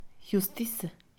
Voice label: Mixe, Mujer; juicio; INALI

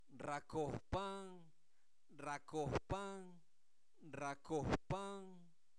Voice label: Zapoteco Albarradas, santo domingo albarradas; INALI; verbos; se humedece

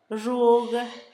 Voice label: uña, Zapoteco, Asunción Ocotlan; Cuerpo; INALI; Haspelmath

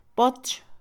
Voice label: Mixe, Mujer; pared; Casa; INALI

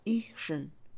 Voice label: Mixe, Mujer; ver; Percepción; INALI

